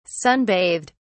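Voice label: "sunbathed", British English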